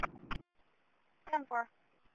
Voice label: Police Sheriff Dispatch